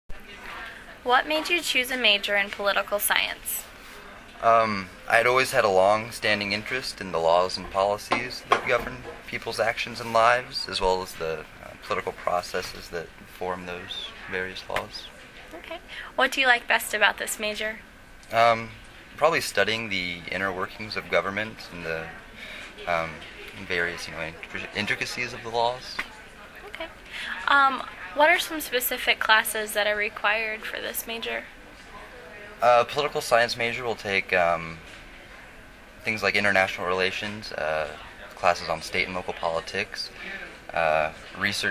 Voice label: interview; political science major